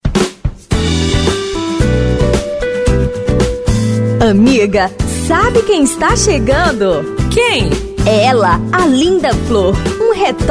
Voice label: locutoras

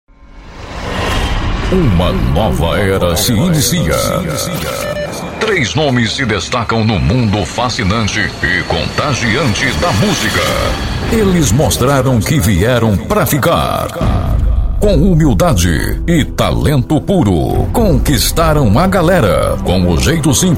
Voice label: abertura de live, locutor

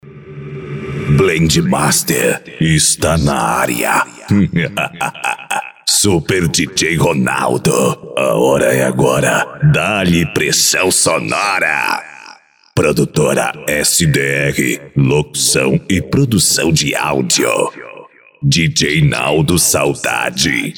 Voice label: VINHETA OFF ♂; São vinhetas sem efeitos sonoros de fundo, voz tratada, equalização e limpeza de ruídos

